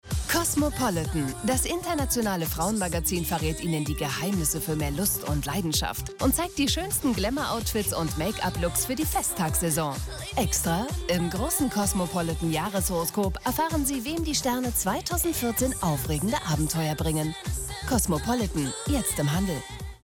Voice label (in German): deutsch frauen werbung; sprecher